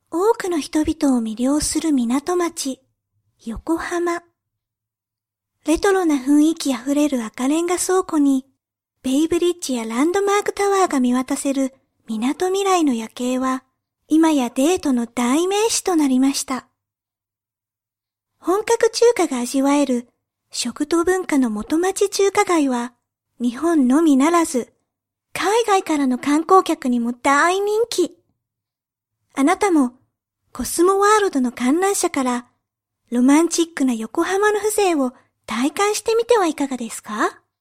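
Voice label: Japanese, female, narration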